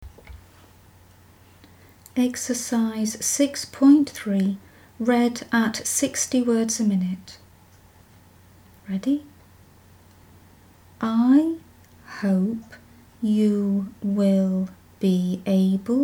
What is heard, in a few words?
60wpm; Teeline